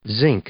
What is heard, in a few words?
"zinc", noun